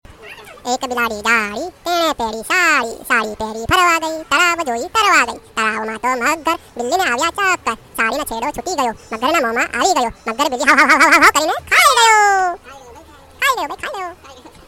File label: funny gujarati